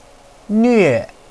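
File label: "nue", Mandarin Chinese, fourth tone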